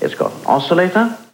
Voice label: LoFi Phrases, LoFi Vocals; Vengeance Samples